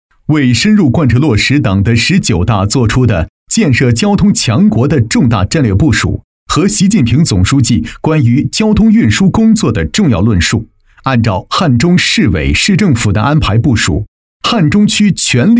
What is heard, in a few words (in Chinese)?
男-党建-大气厚重, 年轻厚重 沉稳大气